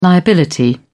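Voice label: "liability", British English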